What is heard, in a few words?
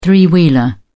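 "three wheeler", British English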